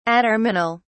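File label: "atterminal", English, British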